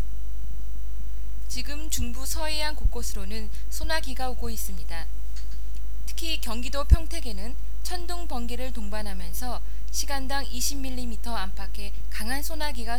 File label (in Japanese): 天気予報アナウンスの録音